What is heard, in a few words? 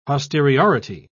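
"posteriority", British English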